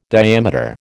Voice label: "diameter", American